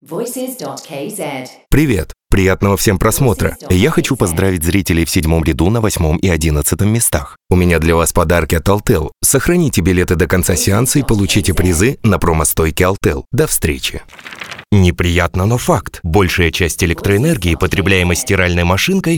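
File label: Russian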